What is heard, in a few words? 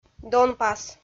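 "донпас", Komi, female; kpv audio dict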